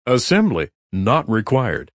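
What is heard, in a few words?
Assembly Not Required, Male; Talking Sound Effects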